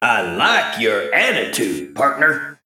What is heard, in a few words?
Male Mech; After Shop Upgrade